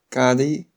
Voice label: how much shanghainese